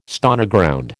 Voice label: "Stoneground", American

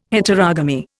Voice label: "Heterogamy", Indian

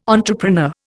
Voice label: "entrepreneur", Indian

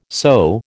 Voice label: "sew", American

